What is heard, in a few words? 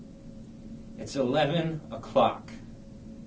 SAD, sad emotion